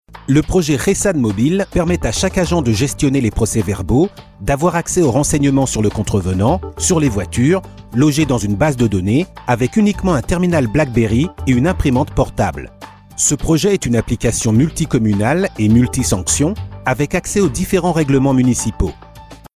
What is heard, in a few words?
male french mobil comercial; ideasonora